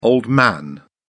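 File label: "old man", British English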